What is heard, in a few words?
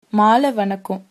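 good evening, Tamil, female; மால வணக்க; maala vanakkã; Good evening (Evening greeting)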